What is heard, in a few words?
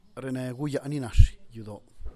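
"reneeguyaaninaxyudau", Teotitlán del Valle Zapotec; louder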